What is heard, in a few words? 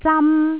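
"zam1", Cantonese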